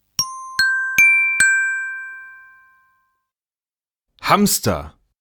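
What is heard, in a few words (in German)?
"Hamster", German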